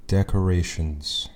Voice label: "decorations", anglais; household objects; Kitchen Objects